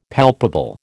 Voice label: "palpable", American